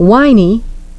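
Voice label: "whiny", English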